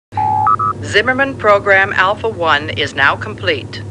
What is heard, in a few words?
Computer Voice; Star Trek